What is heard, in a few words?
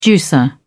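"juicer", British English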